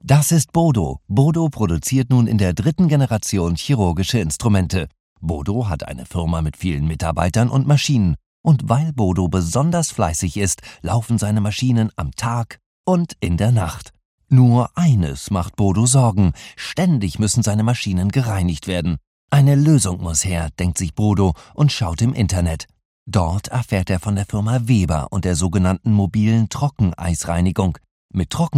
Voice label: german germany male